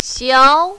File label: "xiao2", mandarin, female